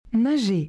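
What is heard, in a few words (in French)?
"nager", French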